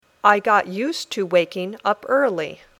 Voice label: Dictation